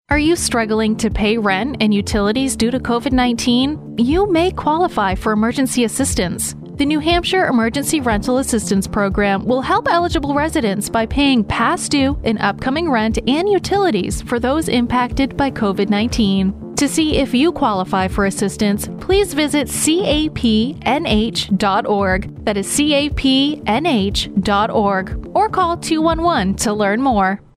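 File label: rental assistance female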